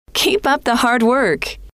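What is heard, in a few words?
keep up the hard work, female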